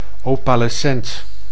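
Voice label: "opalescent", Dutch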